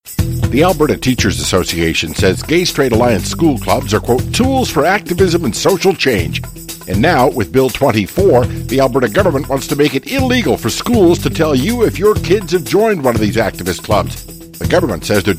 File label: radio ad